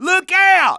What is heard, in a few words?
grenade, English Voice